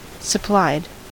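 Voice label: "supplied", US English; pronunciations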